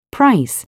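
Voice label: "price", English word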